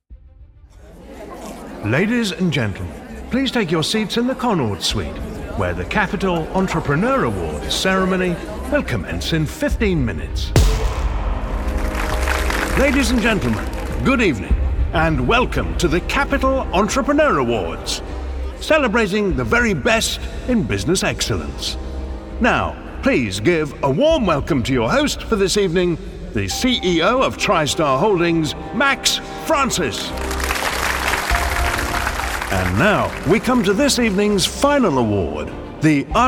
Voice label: Voice Of God